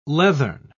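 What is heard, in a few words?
"leathern", English, British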